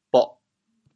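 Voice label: "boh4", Teochew, chaoyang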